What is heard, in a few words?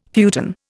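"futon", Indian English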